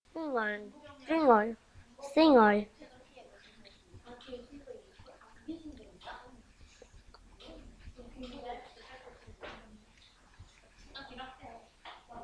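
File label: "singer", spoken word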